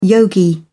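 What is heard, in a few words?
"yogi", British English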